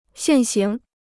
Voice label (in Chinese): "现行", Chinese